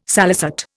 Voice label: "salicet", Indian

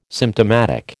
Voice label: "symptomatic", American

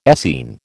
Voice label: "Essene", American

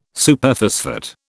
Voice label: "Superphosphate", British

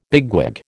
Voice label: "bigwig", American

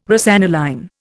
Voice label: "rosaniline", Indian

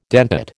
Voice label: "dentate", American

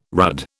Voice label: "rudd", British

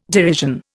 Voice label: "derision", Indian

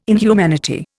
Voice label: "inhumanity", Indian

